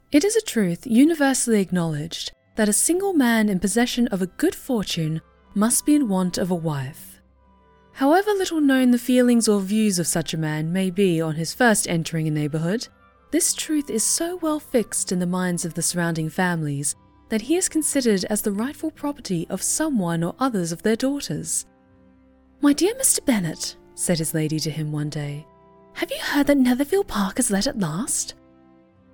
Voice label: narration reel